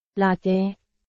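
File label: Burmese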